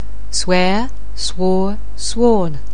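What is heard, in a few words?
"swear", English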